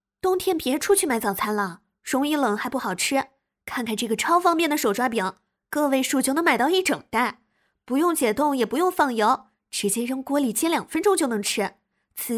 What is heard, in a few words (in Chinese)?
自然活泼短视频带货